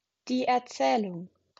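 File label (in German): "Erzählung", German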